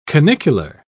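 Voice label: "canicular", British English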